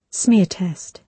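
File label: "smear test", British English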